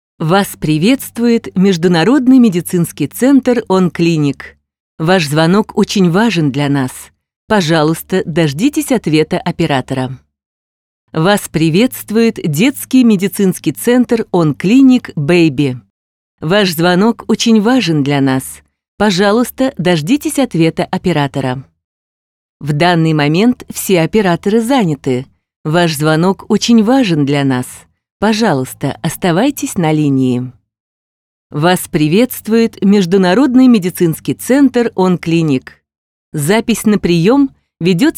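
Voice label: Russian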